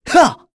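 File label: vox Jump, Korean; heroes; Lucias; kings raid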